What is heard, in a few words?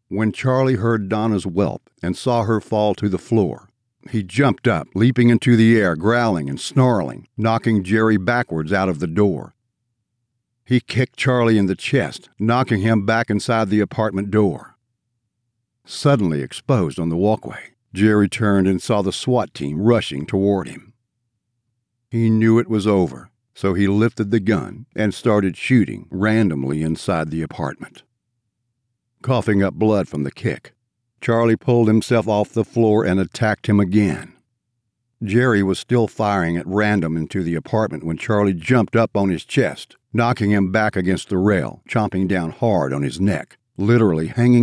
3rd person Action Kill scene, audiobook